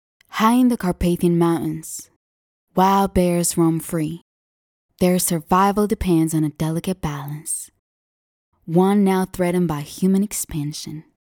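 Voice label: Romanian female English narration documentary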